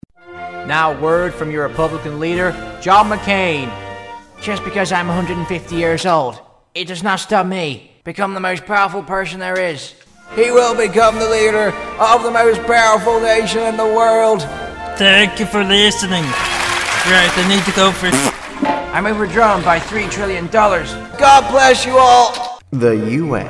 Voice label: commercial; us election